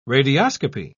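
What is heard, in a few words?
"radioscopy", British English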